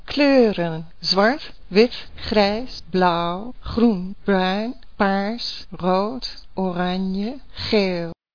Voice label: dutch; colors